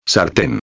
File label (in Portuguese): "sarten", espanhol